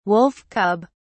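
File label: "wolf cub", British English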